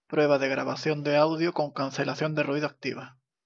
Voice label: ANC ON; Asus ROG Clavis